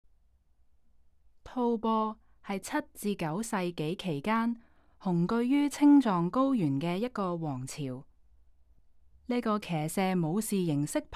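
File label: Cantonese, audio guide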